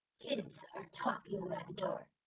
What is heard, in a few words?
calm happy generated; GAN for speech spectrogram